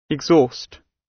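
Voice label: "exhaust" British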